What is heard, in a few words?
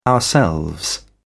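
"ourselves", British English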